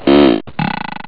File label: happy, speech; OctaMED SoundStudio V1